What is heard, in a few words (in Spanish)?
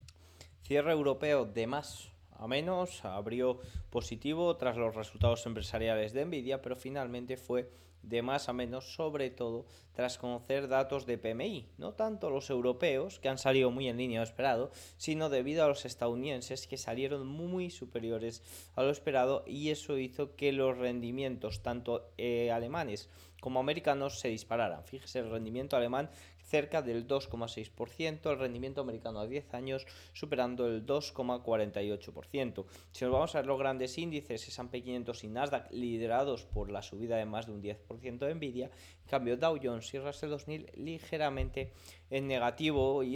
radio